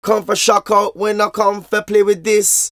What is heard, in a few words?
Vocals; Jungle Frenzy 1